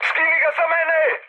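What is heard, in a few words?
Serbian aircraft damaged mood high, speech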